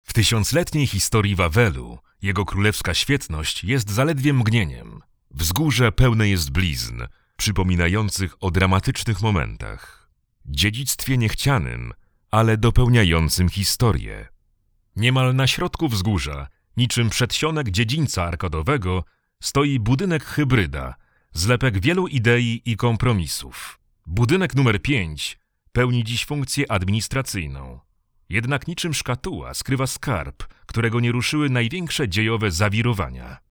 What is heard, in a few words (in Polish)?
Polish documentaries